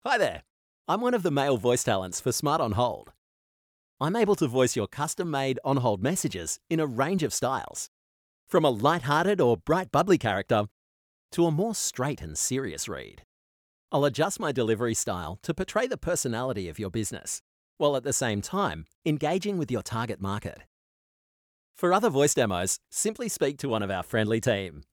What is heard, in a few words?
Male; Voice Over artists; Smart On Hold Messages